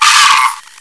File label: death, bot voice commands; svencoop